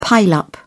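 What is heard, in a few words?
"pile up", British English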